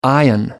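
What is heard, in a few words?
"ion", English, British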